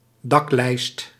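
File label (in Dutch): "daklijst", Dutch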